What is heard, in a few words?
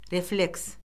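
"refleks", Azerbaijani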